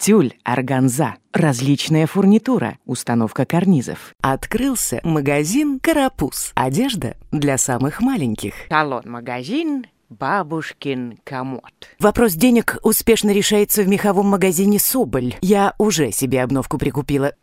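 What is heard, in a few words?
Russian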